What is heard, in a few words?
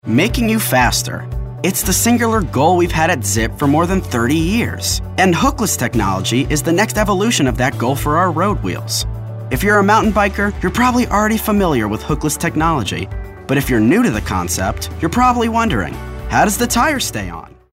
conversational